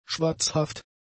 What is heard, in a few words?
"schwatzhaft", German; adjectives